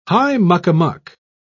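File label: "high-muck-a-muck", English, British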